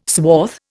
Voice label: "swath", Indian